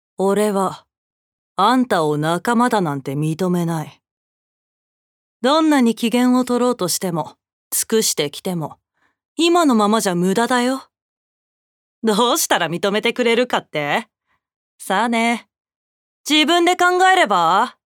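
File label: boy, Japanese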